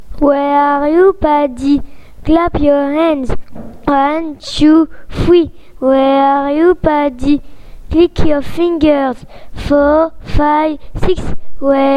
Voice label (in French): anglais